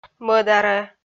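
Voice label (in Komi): "мӧдарӧ", Komi, female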